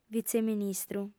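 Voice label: "vitzeministru", Sardinian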